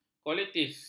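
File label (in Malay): "kolitis", Malay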